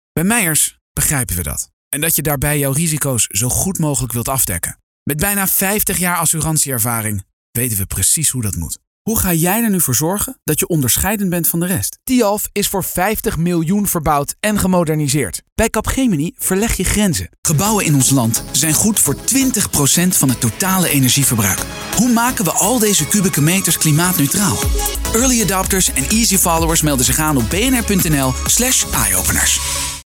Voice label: corporate